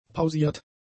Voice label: "pausiert", German; adjectives

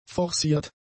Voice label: "forciert", German; adjectives

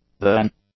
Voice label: "then", English; adjectives

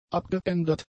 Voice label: "abgeändert", German; adjectives

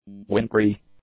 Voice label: "wintry", english; adjectives

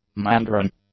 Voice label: "Mandarin", English; nouns

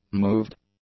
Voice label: "moved", English; adjectives

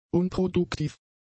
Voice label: "unproduktiv", German; adjectives

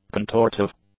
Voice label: "contortive", English; adjectives

